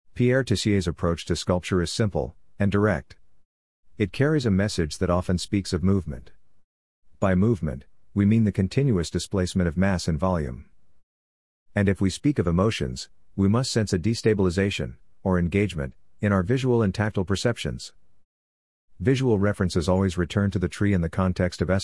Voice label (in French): anglais